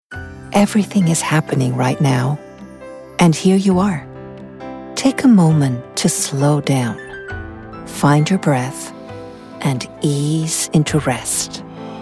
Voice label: Calm spot, english commercial voice over